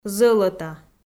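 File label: "зӧлӧта", Komi, female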